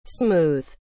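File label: "smooth", English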